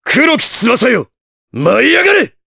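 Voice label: Vox Skill, voices, Japanese; Riheet; kingsraid; voices heroes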